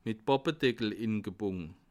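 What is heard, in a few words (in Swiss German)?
"ingebunde", Bas Rhin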